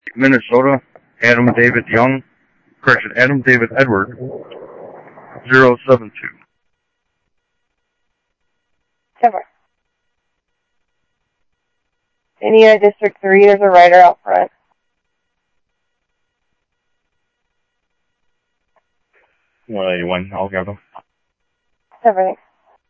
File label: Police, scanner audio